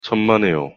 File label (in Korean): "천만에요" Korean; pronunciation